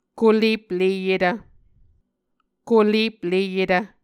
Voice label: "kolepleyetv", Muscogee

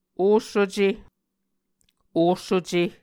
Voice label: "Osuce", muscogee

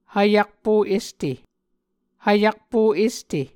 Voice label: "hvyakpo este", Muscogee

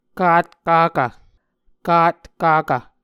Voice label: "katkakv", Muscogee; Mvskoke Words with Voicing Consonants; Onvkv Ohhvyayices

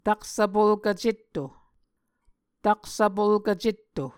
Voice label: "taksvpolkv cetto", Muscogee

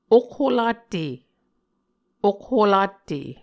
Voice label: "okholatte", Muscogee

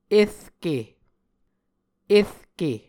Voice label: "erke", Muscogee

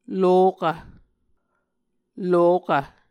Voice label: "lokv", Muscogee

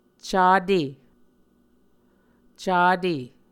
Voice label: "cate", muscogee

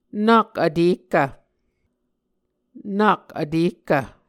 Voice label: "nak vtehkv", Muscogee

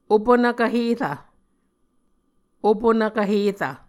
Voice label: "opunvkv herv", Muscogee

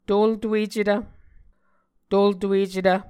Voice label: "toltuecetv", Muscogee

